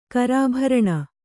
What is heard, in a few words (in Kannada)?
"ಕರಾಭರಣ", Kannada